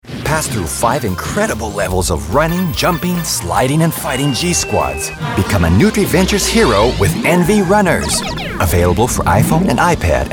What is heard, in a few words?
Online Game Teaser